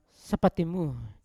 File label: "sapatimu", Yawa; to choose; unat